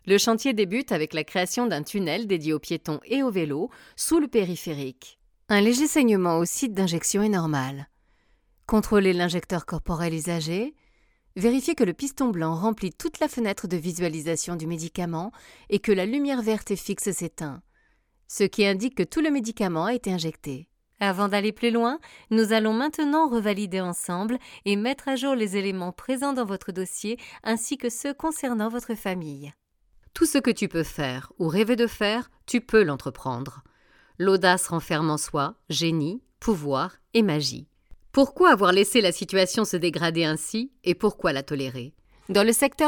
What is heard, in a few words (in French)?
voix institutionnel